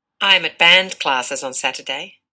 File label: dictation